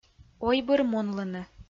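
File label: "ойбырмунлыны" Komi-Zyrian, female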